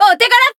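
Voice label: Voice finish, Japanese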